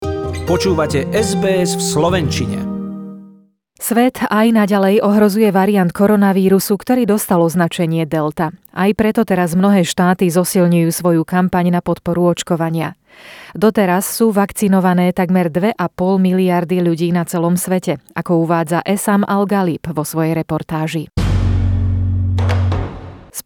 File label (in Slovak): slovak; sbs